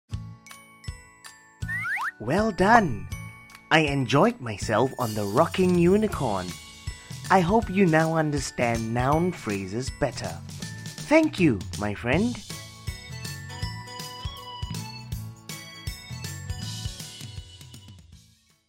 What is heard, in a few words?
Asian English eLearning, EN SG